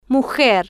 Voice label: "mujer", Spanish

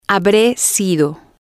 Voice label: "habre sido", spanish

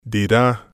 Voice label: "dira", spanish

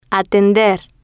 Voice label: "atender", Spanish

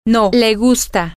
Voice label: he likes no, spanish, F

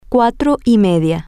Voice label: half past four, spanish, F

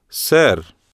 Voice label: "ser", Spanish

